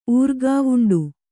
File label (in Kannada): "ಊರ್ಗಾವುಂಡು", Kannada